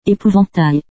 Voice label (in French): "épouvantail", French